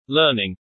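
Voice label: "Learning", English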